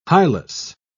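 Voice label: "hilus", British English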